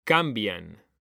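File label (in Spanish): "cambian" Spanish, male; mekanta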